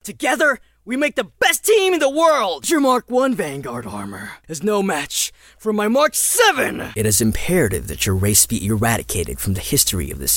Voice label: English (Character; Character Reel